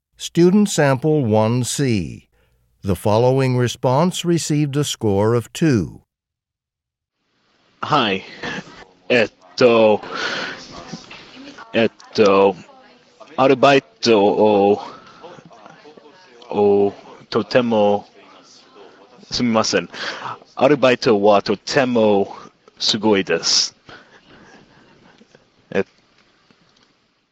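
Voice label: japanese conversation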